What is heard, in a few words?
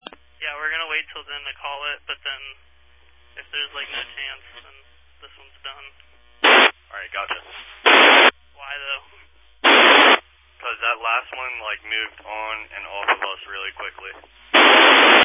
Family Radio Service (FRS)